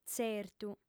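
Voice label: "tzertu", female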